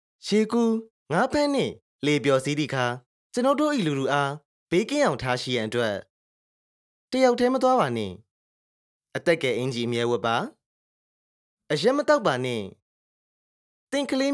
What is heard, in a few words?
Burmese Radio; Water Safety Community Announcement; Royal Life Saving Australia